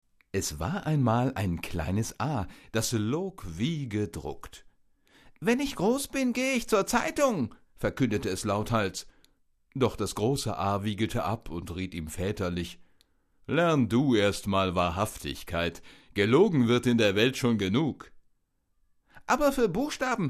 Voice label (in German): Erzaehlung